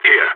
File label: reporting in, balkan; vo